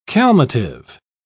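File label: "calmative", British English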